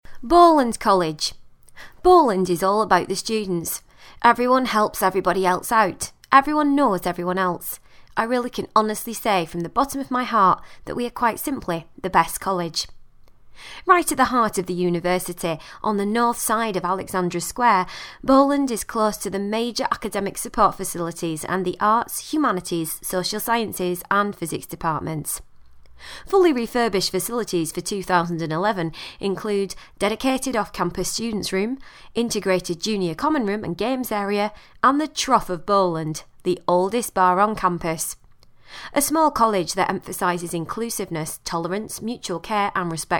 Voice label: northern

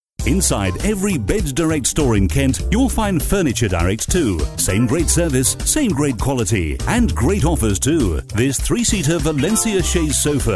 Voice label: soft sell

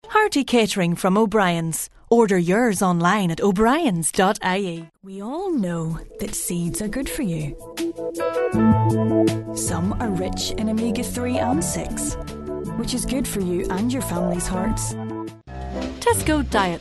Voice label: commercials

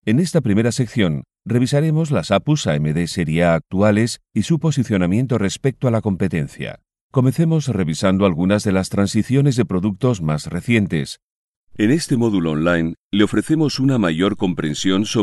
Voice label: e-learning

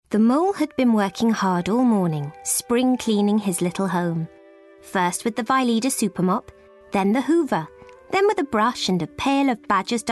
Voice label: audiobook

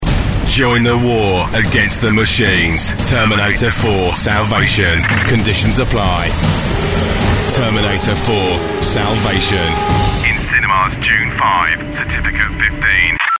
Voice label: film trailer

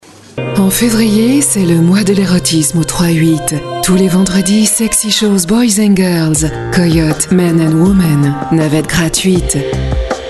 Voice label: sensual

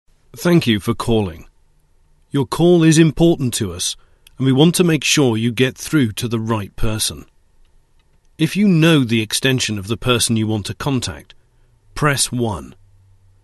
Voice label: Telephone

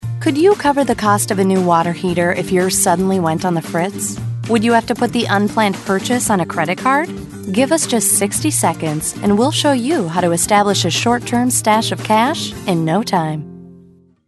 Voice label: AMERICAN

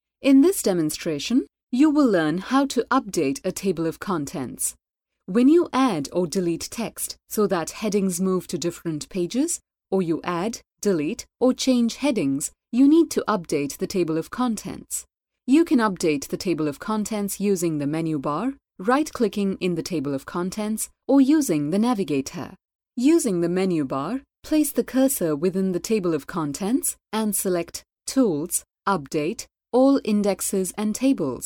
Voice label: e learning

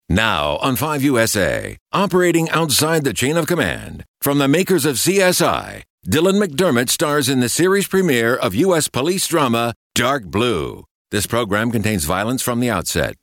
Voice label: continuity

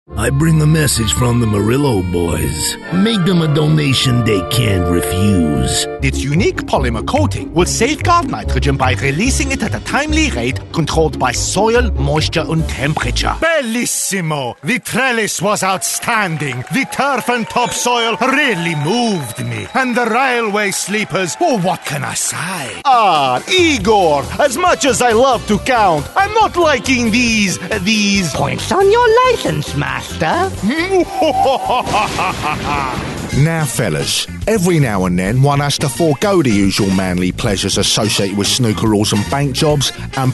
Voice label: Character

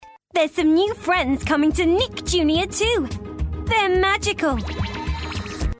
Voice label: promo

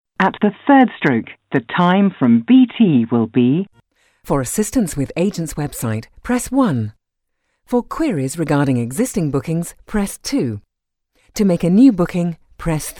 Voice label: telephone

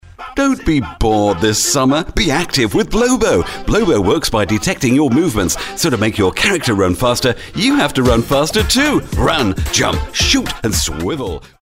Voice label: promo

